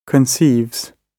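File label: "conceives", British English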